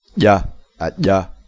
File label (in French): G palatal implosif